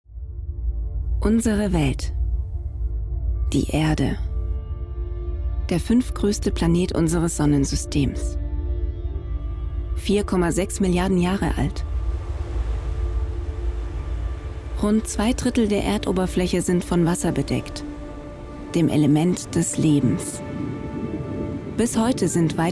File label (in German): Doku